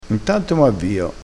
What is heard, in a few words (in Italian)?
"avviassi", crespinese